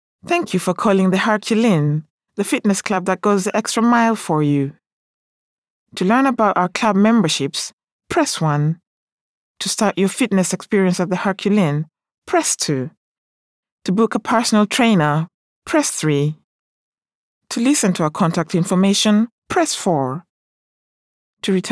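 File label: English, African, female, IVR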